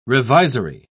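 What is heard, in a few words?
"revisory", British English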